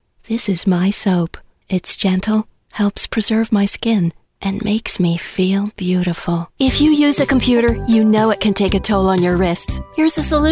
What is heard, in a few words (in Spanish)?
comercial inglés USA